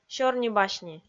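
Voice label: "сёрни-басни", Komi, female; kpv audio dict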